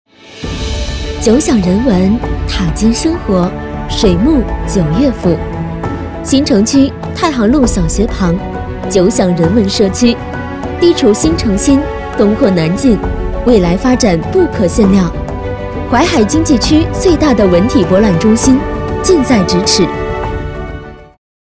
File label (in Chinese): 女-【地产纯广告】-年轻清脆; 水沐·玖悦府